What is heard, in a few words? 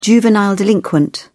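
"juvenile delinquent", British English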